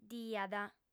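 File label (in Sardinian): "diat", female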